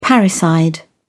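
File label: "parricide", British English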